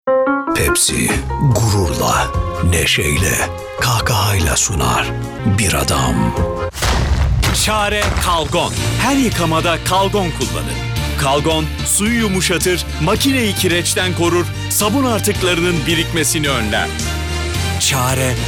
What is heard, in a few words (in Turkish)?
reklam